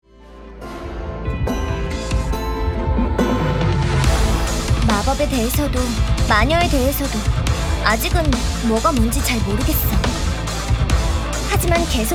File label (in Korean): 해맑고 정의로운 힘있는 10대; 카운터 사이드; 각성 유나; 스프링 필드